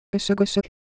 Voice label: Korean Motion; Ideo Phones

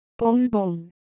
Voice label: Korean Color Visual

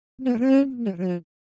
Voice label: Korean, Ideo Phones; Texture

